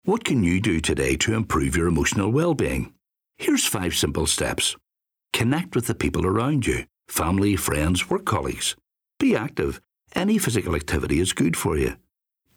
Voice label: MALE RADIO